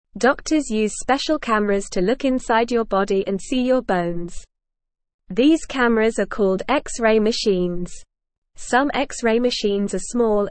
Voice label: English Beginner NORMAL Reading; English Newsroom